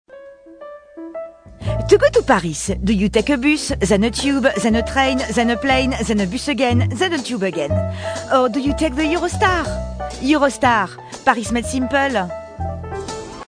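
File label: Strong French Accent, Commercials; Eurostar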